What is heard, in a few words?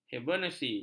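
"hibernasi", Malay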